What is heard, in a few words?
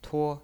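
"tuo", pinyin voice, first tone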